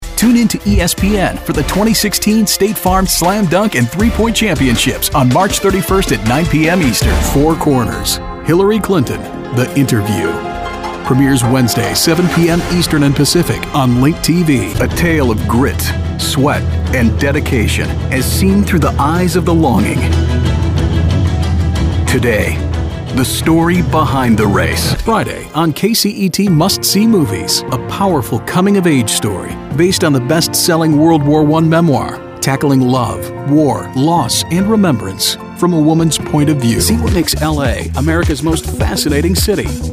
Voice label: men promos